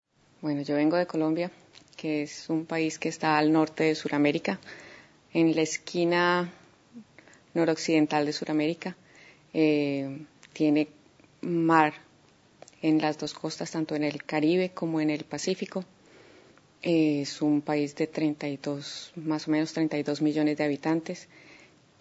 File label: Columbia, dialekt